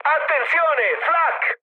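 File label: Italian damaged aa mood high, speech; War Thunder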